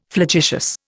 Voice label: "flagitious", Indian